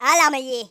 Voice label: "fireball", Geordie; speech